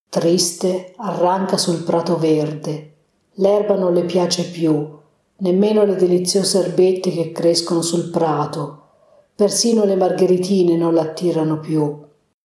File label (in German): italienisch